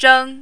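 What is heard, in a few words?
"zheng1", mandarin, high level tone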